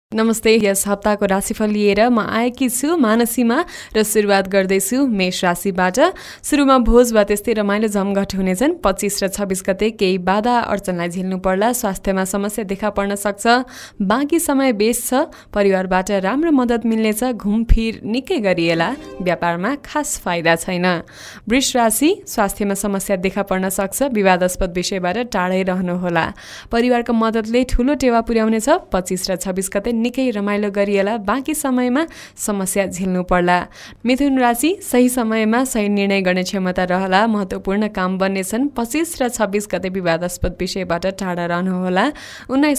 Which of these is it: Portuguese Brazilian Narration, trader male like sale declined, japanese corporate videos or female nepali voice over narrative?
female nepali voice over narrative